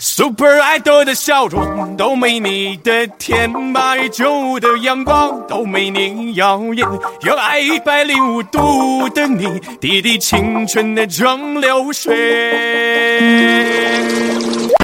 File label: scout cheers; cnscout